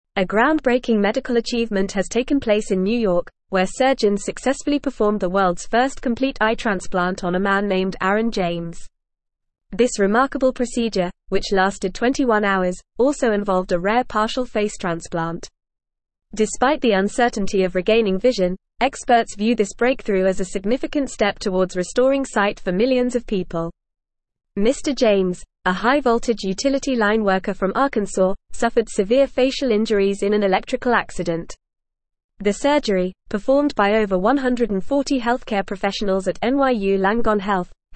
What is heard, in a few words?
English Newsroom Advanced FAST Reading; Worlds First Complete Eye Transplant Marks Medical Milestone